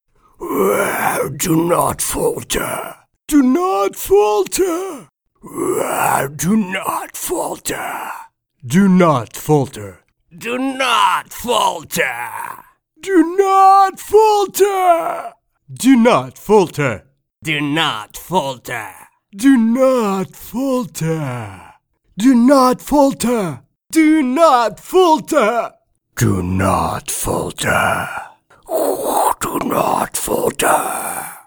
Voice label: Videogame Characters En